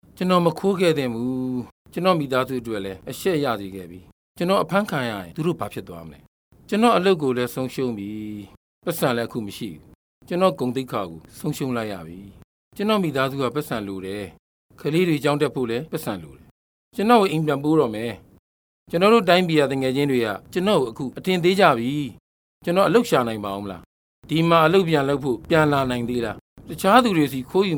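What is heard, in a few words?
Burmese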